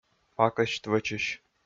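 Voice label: "пакӧсьт вӧчысь", Komi, male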